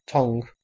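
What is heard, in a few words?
"tongue", North West Midlands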